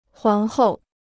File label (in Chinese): "皇后", Chinese